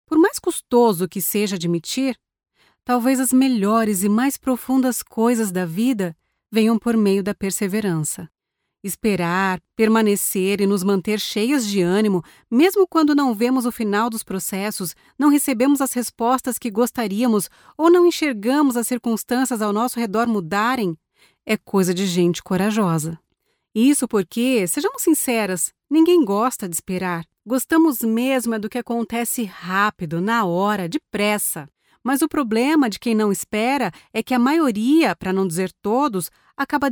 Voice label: portuguese brazilian audiobooks